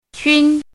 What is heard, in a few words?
"qūn", hanzi